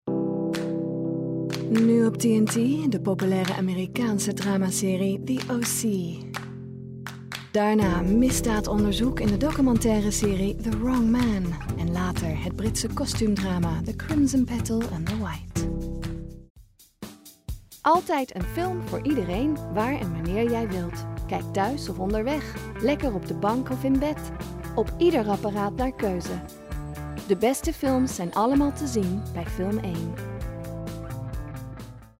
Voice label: female dutch corporate compilation